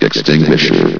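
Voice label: "extinguisher", announcer voice; Source Engine; bshift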